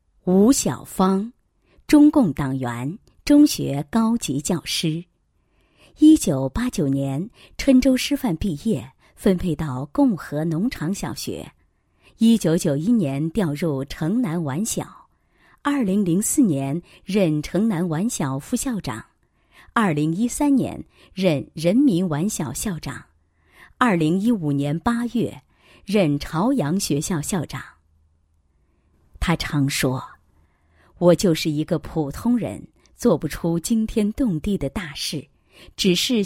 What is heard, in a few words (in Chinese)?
女 人物介绍, 配音; 高级教师